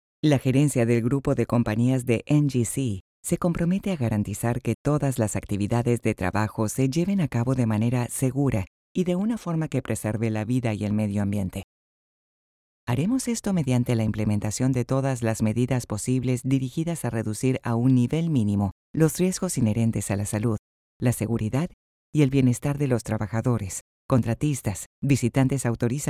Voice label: Spanish Latin American e-learning